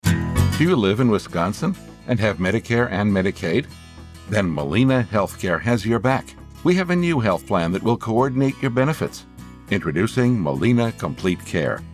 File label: Commercial